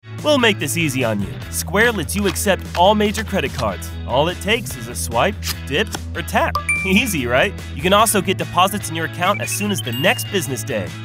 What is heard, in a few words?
commercial